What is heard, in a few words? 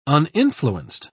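"uninfluenced", British English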